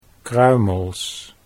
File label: "kruimels", Dutch